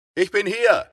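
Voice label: dialogue German; A Western Drama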